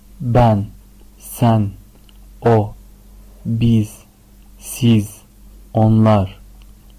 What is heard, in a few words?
turkish pronouns